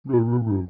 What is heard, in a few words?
monster mumble